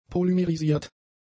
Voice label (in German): "polymerisiert", German; adjectives